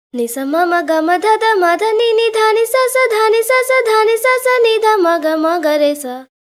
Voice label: voice, pitchshift 2; serato pitch n time le